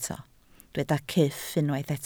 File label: "cyff", Welsh